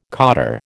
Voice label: "cottar", American